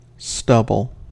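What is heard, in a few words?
"stubble", US English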